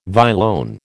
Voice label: "violone", American